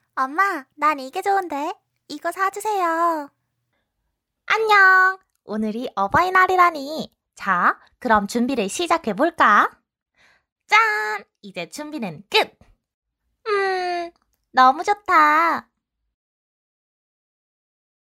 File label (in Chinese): 韩语女-角色-可爱女童